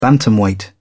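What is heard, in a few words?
"bantamweight", British English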